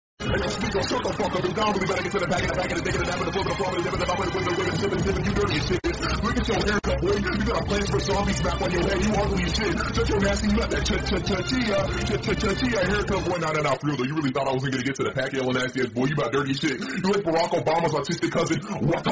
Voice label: loud roast; packgod